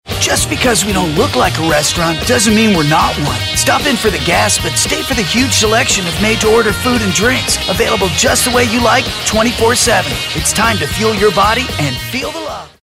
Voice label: raspy

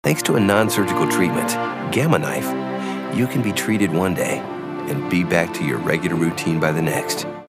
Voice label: soft spoken

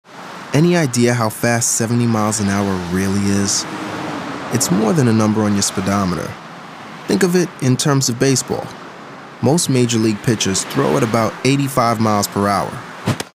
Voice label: soft spoken

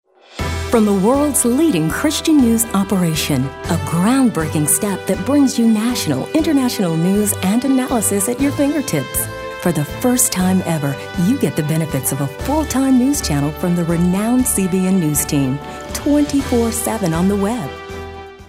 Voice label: newscaster

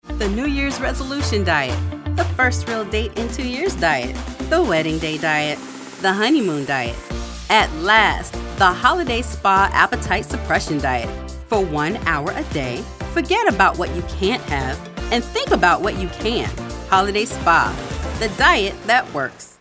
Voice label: friendly

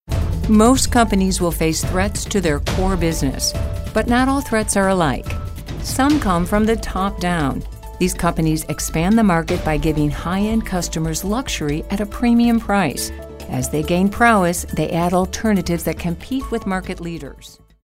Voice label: narration

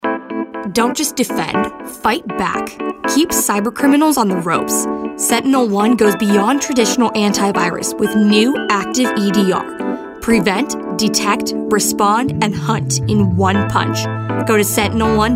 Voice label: attitude

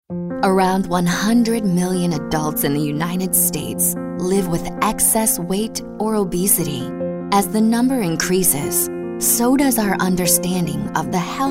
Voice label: compelling

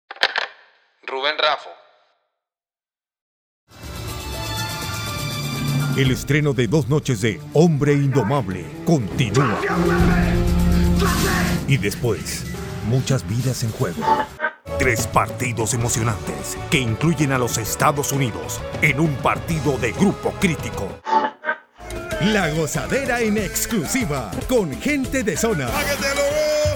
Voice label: Spanish Demo - TV Promos

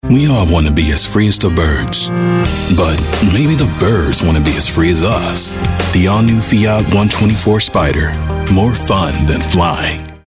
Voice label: soft spoken

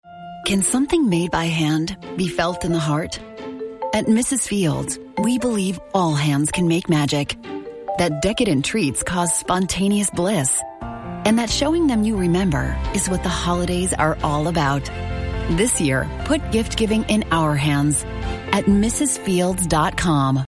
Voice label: Holiday Commercial; Ms Fields

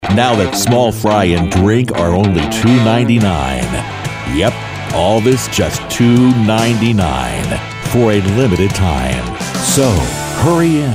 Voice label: tough gritty clean

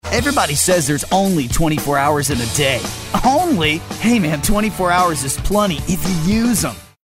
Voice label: upbeat

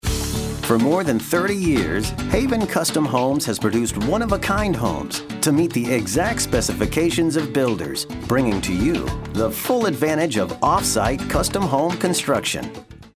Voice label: friendly genuine

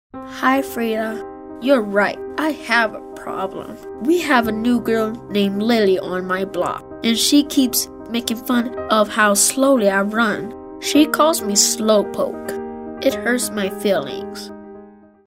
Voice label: Kid Next Door Real

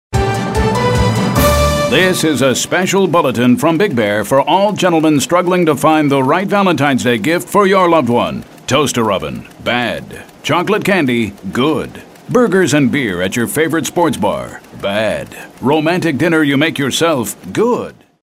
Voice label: newscasters

